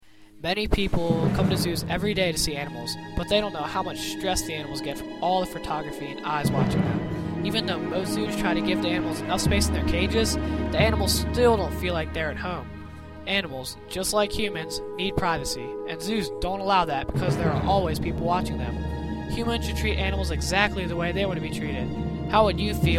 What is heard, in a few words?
podcast; ZooNegative